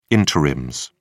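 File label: "interims", English, British English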